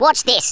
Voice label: "WATCH THIS", Australian; Worms Armageddon